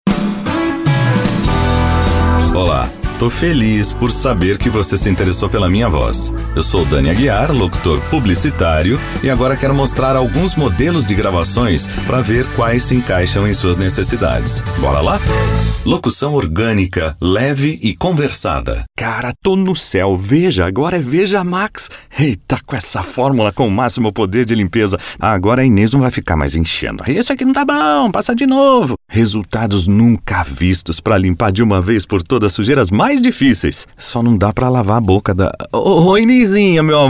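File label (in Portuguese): VOZES MASCULINAS, Padrão Animada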